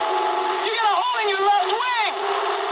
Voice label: eng left wing mood med, speech eng; War Thunder

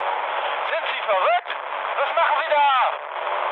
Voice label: player killed ally, mood med, speech ge; War Thunder

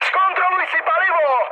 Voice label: tank damaged, speech cze, mood high; War Thunder

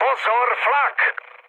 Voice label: damaged aa, speech cze, mood low; War Thunder

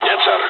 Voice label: dialogs russian; War Thunder

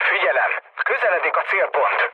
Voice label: near waypoint, mood low, speech hun; War Thunder

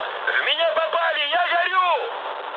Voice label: on fire, mood high, speech ru; War Thunder

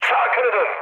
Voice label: right wing, mood high, speech tur; War Thunder

